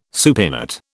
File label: "supinate", British